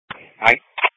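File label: Police Patrol Dispatch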